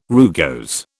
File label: "rugose", British